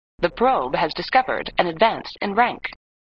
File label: Voice Computer; The Probe has discovered an advance in rank; flipper; sttng